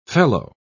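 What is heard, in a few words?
"felloe", British English, dictionary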